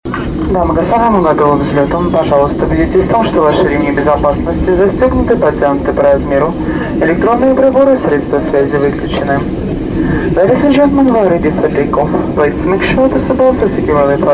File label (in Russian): zvuk stjuardessy